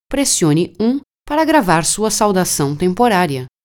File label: brazilian portuguese Record Temporary Greeting